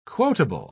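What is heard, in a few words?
"quotable", English, uk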